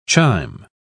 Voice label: "chime", pronounce